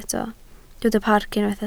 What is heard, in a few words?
"parc", Welsh